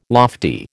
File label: "lofty", American